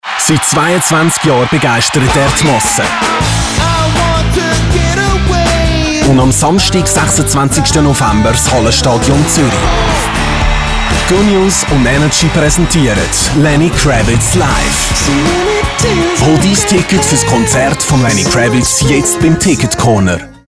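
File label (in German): Radiospot begeistert für Konzert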